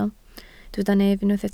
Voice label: "nef", Welsh

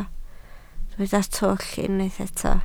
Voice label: "twll", Welsh

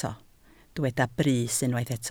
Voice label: "brys", Welsh

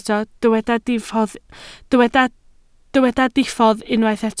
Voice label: "diffodd", Welsh

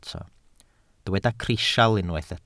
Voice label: "crisial", welsh

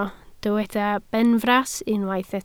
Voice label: "benfras", Welsh

